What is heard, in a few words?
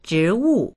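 "zhi2wu4", Mandarin Chinese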